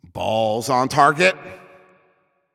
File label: Shot On Goal, Announcer